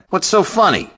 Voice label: quiet, grunt; svencoop; st allies; nimble grunt

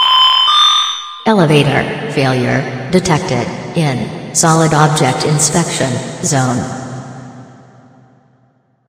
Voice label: announcement; svencoop; st mission

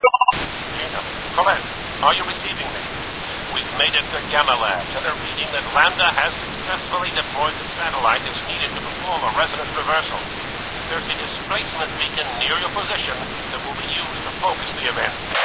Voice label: radio; svencoop; keller

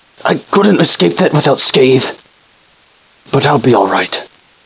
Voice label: hurt; svencoop; scientist; trask

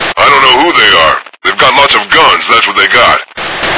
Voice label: intro grunt; svencoop

